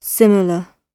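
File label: "similar", British English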